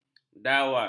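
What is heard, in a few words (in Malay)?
"dawas", Malay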